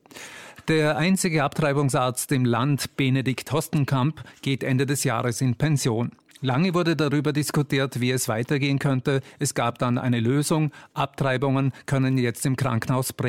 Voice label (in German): radio nachrichten; orf radio vorarlberg; nachrichten 12-30